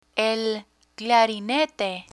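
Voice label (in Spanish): "clarinete", Spanish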